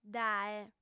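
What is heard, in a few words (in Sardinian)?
"dae", female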